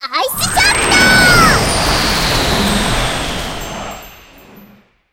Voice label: skill ice ja